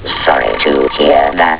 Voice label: robot sorry to hear that